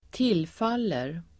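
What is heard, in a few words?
"tillfaller", Swedish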